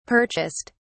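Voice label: "purchased", British English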